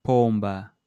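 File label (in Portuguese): "pomba", português europeu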